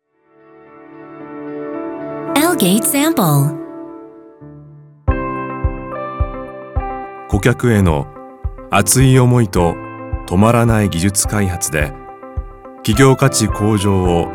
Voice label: 일본 남 차분한톤

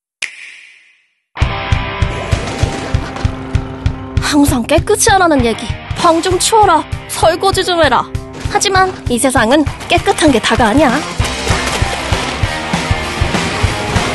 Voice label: 밝은 일반인 10대; 스플래툰 3; 남자1명빼고전부다

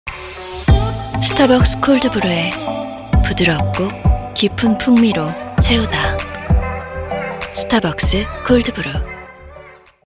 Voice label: 차분한 시크한 20대; 스타벅스; 콜드브루

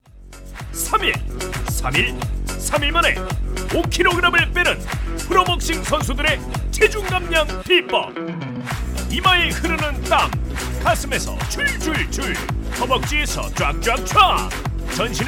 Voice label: 홈쇼핑 샘플 힘찬 호소력 웅장한 강한

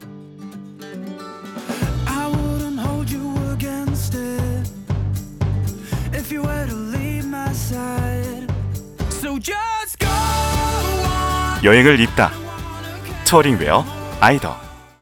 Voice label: 광고 힘찬 밝은 에너지 있는; 아이더

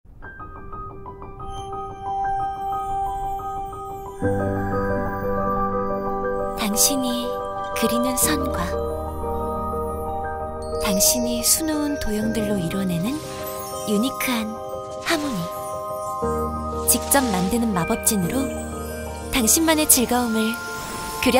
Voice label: 신비로운 느낌의 게임광고 차분한 20대

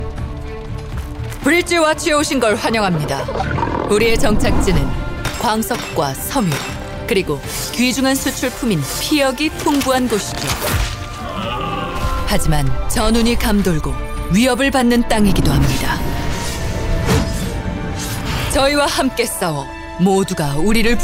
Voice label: 40세 중후하고 묵직한 리더 30대 진중한; 알비온 cm

